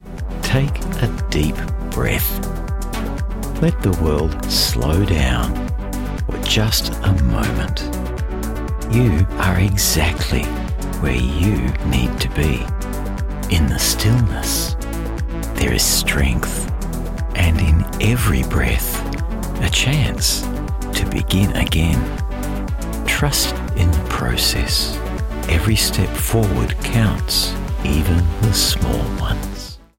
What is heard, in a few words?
Bank Commercial, Television Spots, Emotive, Thoughtful, Meditative, male